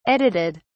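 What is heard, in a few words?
"edited", British English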